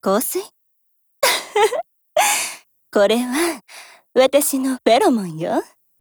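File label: DIALOGUE, Japanese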